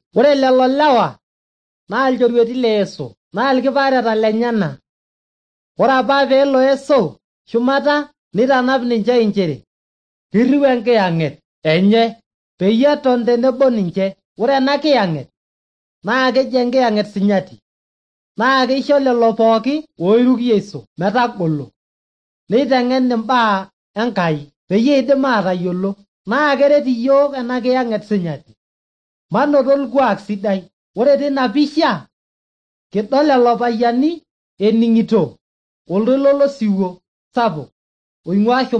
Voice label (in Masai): maasai bsv nt drama; good news; bible